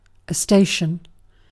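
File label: "station", British English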